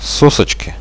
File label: oh yes, radio bot; Reallite